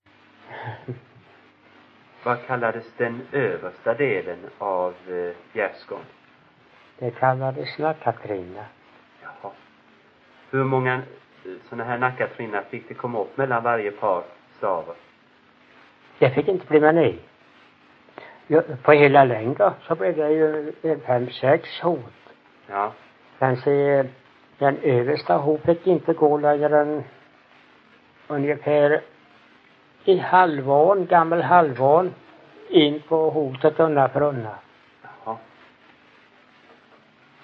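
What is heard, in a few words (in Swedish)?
Ingatorp Småland, dialekter; Folkminnen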